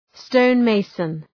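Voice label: "stonemason", English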